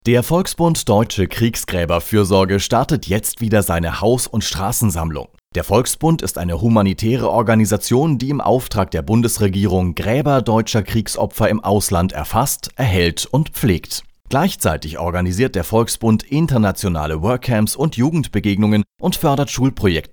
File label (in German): Radio-Infospot; Volksbund; Haus- und Strassensammlung